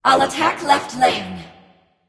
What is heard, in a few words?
vox self attack; smite; arachne